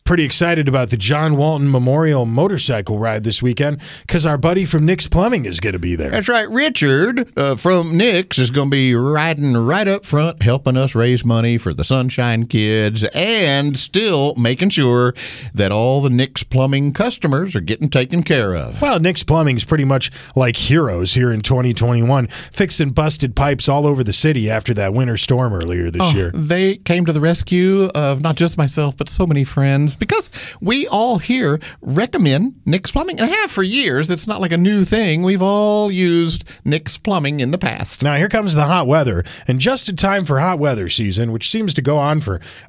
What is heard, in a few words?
RADIO AD; nicks plumbing radio ads